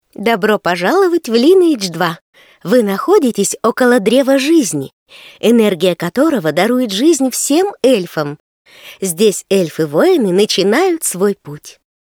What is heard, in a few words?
tutorial voice, ru; lineage2